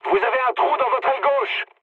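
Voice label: left wing, mood high, French; War Thunder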